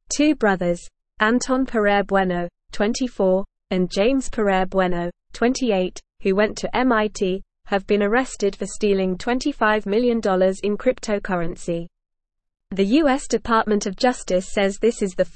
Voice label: English NORMAL Reading; MIT Brothers Arrested for 25 Million Cryptocurren

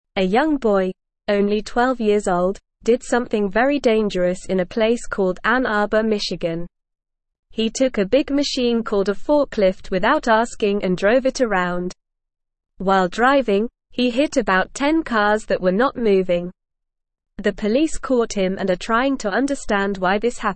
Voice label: English Lower Intermediate NORMAL Reading; English Newsroom; Boy Takes Forklift Police Chase Cars Get Bumped

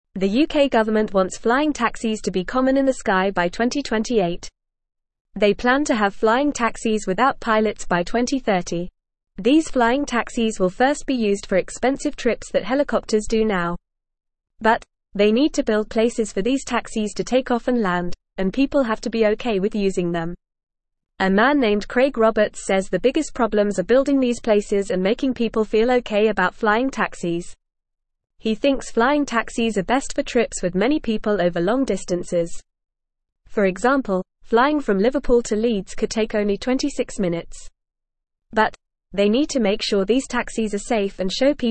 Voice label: English Lower Intermediate FAST Reading; UK Plans for Flying Taxis by 2028

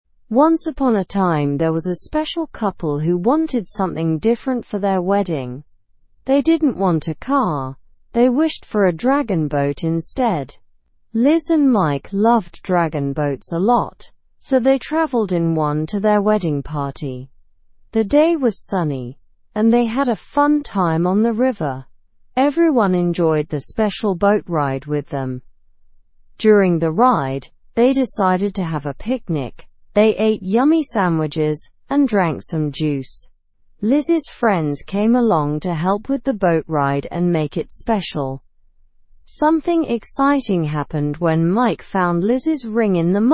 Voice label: learn english through news beginner slow; wedding couple chooses dragon boat

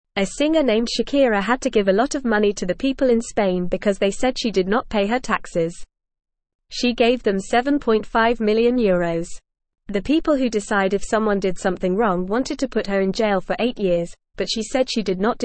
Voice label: English Newsroom Beginner FAST Reading

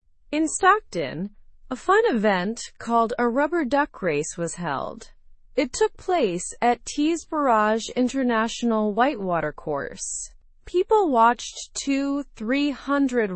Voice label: learn english through news lower intermediate; stockton duck race helps raise charity funds

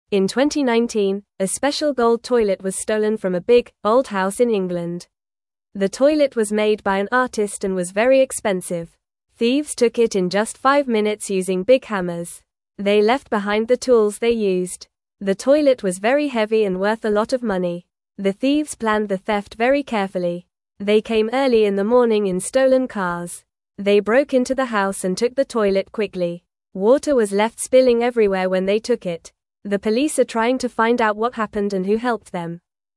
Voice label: English Beginner FAST Reading; English Newsroom; Thieves Steal Fancy Gold Toilet in Just Five Minutes

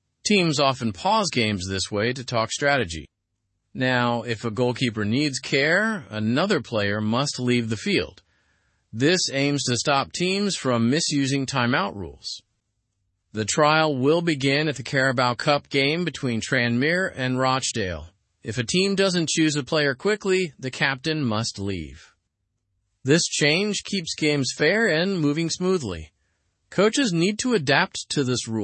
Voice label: learn english through news lower intermediate; football trials new rule for goalkeeper timeout

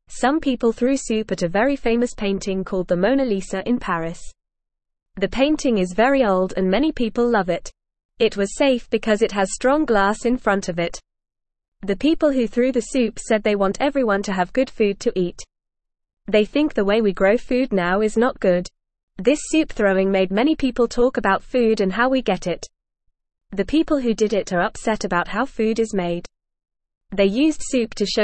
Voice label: English Beginner FAST Reading; Soup Thrown at Famous Painting in Paris Sparks Talk

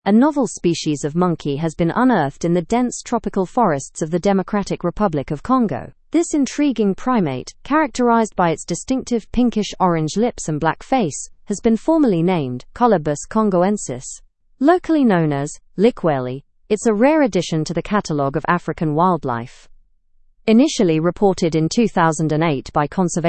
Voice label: learn english through news advanced fast; new species of monkey discovered in dr congo forest

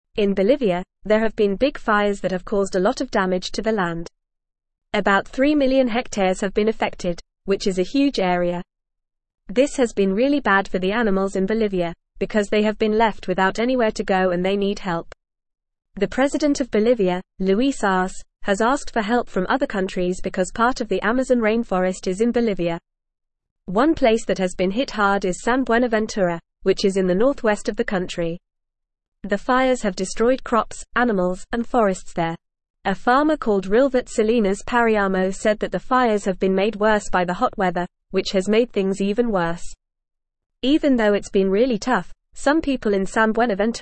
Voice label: Upper Intermediate FAST Reading, English; English Newsroom; Bolivias Wildfires Locals Care for Animals Seek Int

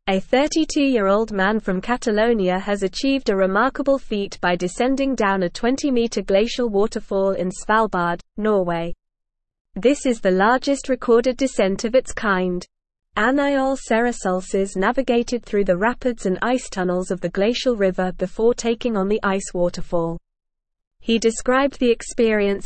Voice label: English Newsroom Advanced NORMAL Reading; Record breaking kayaker descends largest ice waterfall

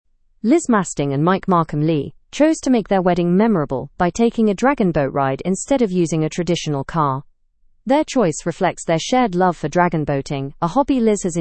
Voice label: learn english through news upper intermediate; couple celebrates marriage with dragon boat ride fast

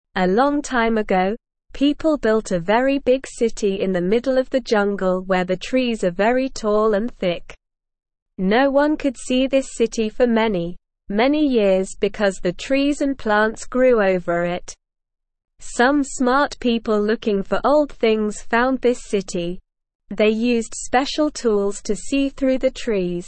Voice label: English Beginner SLOW Reading; English Newsroom

